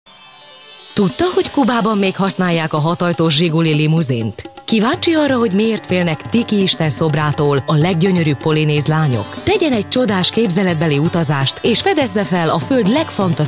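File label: Hungarian female voice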